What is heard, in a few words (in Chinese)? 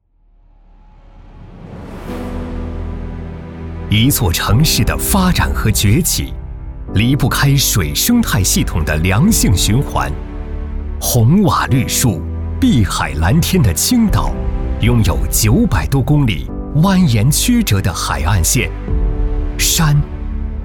男 企业宣传; 青岛水务环境公司